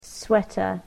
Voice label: "sweater", English